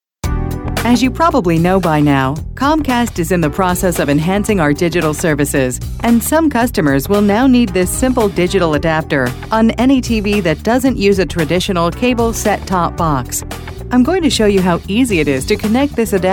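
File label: narration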